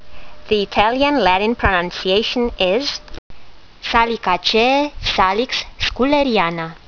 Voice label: latin; Salix scouleriana